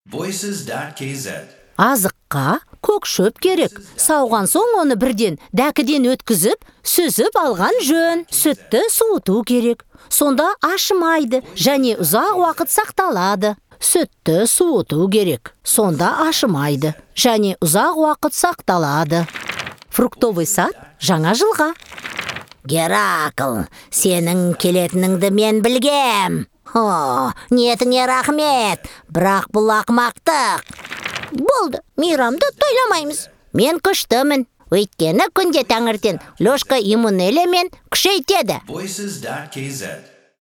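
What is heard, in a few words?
Kazakh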